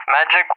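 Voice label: "Magic", English; CallSign; DCS; Allied Flight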